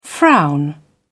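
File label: "frown", British English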